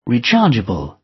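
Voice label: "rechargeable" British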